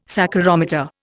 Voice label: "saccharometer", Indian

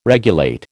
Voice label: "regulate", American

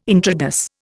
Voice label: "intrados", Indian

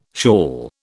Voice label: "shawl", British

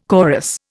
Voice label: "chorus", Indian

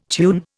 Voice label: "tune", Indian

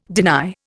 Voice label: "deny", Indian

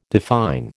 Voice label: "define", American

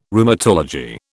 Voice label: "Rheumatology", British

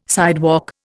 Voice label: "sidewalk", Indian

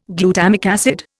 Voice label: "glutamic acid", Indian

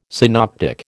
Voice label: "synoptic", American

